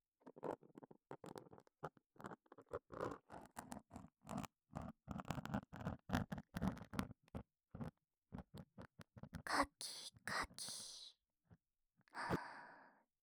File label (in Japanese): 耳かき＋オノマトペ(右耳), ASMR